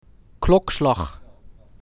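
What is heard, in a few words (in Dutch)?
"Klokslag", Dutch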